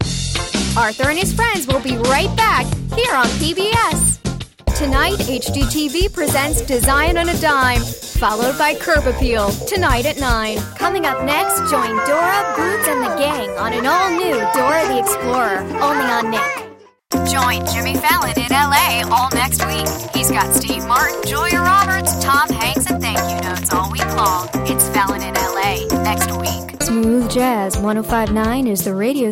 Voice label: Promo